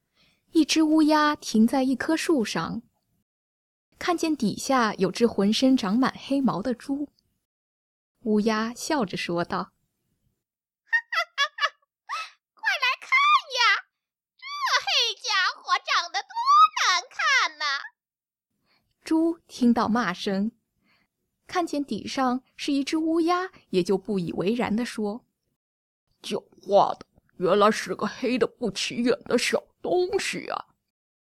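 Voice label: character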